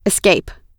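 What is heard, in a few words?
"escape", English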